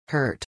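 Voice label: "hurt", English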